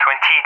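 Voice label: "20", English speech; Numbers; Allied Flight; DCS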